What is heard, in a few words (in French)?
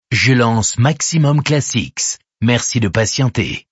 lancement radio; maxximumclassixx